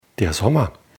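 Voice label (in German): "sommer", German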